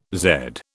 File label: "zed", British